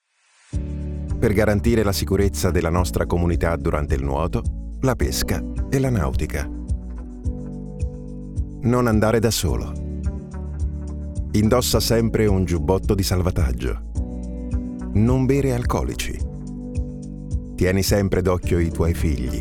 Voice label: Italian Radio Ad